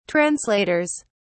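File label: "translators", British English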